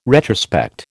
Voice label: "retrospect", American